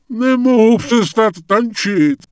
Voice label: Night Elf Male Flirt; wowpreklad; czwow; NightElfVocalMale